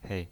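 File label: "hei", Mandarin Chinese, neutral tone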